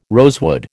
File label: "rosewood", American